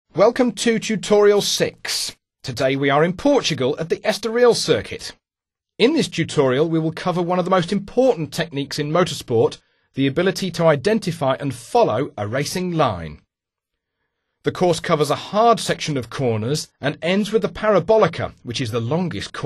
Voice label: racingline japanese, speech; MotoGP 3